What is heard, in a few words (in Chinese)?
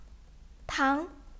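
"tang2", Chinese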